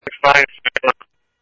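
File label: Phoenix police department, scanner; ThompsonPeakHigh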